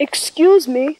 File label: excuse me, voice expletives